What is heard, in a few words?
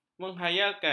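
"mengkhayalkan", Malay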